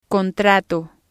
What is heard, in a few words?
"contrato", spanish